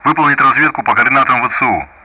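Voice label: Speech Sound Russian; DCS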